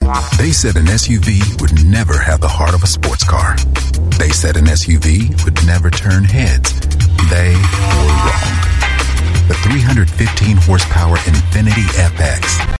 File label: US English; Infiniti